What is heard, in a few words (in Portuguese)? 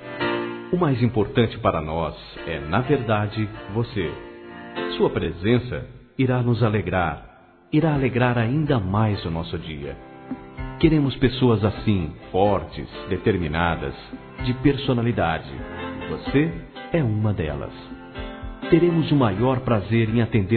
OFERECENDO MENSAGEM M, Telemensagens; masc; Telemensagens 2017